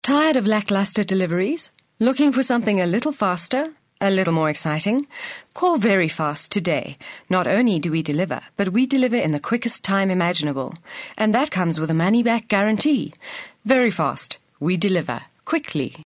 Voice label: corporate

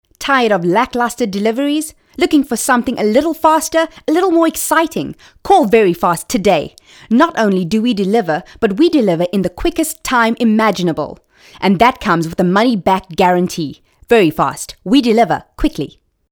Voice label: hard sell

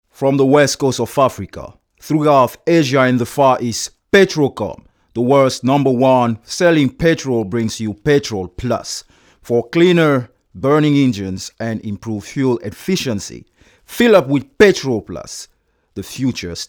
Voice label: hard sell

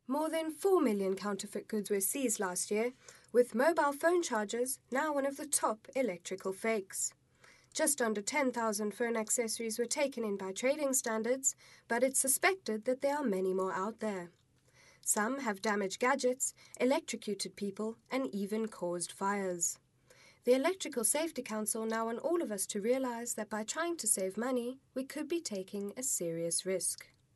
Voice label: accent british

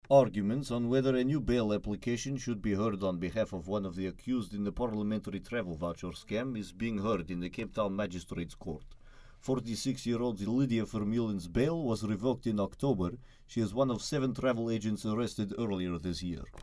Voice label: accent russian

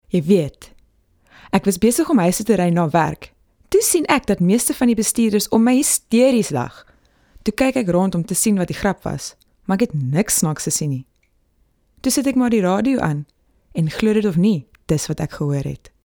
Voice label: chatty afr

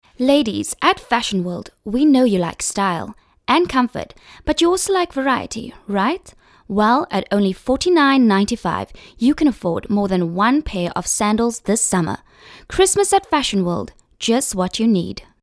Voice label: hard sell

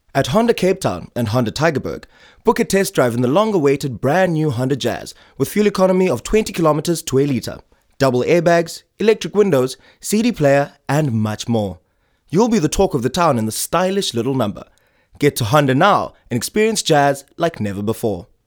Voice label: hard sell

